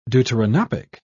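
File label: "deuteranopic", British English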